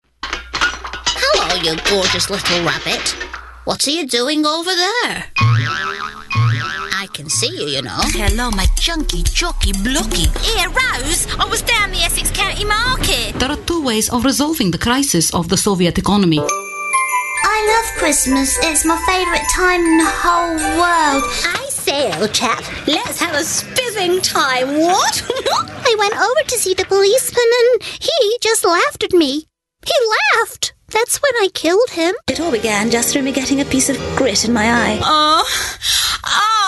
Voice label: character

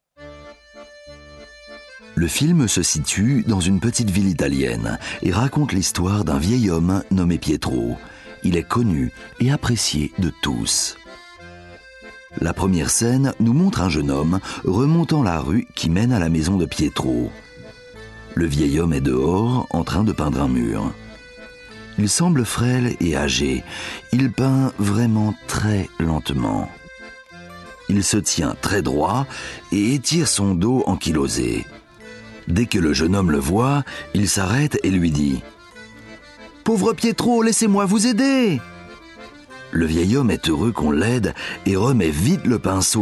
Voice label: narration

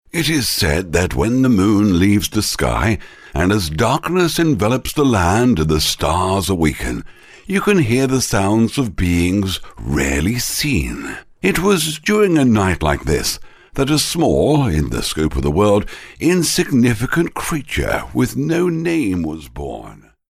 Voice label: elderly

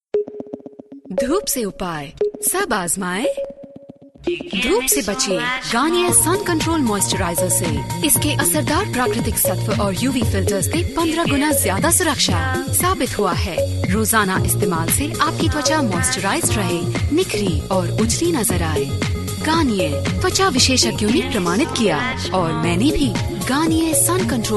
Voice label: generic hindi

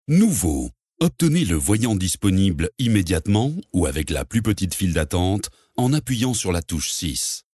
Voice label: corporate

